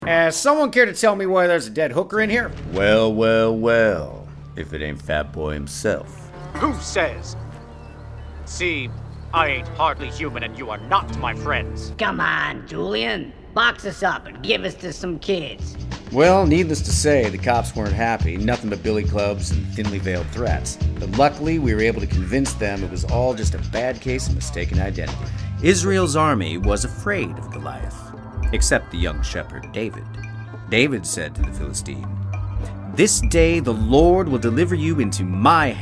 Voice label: animation vo